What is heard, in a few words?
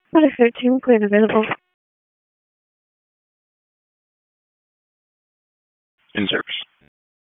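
EMS DISP, radio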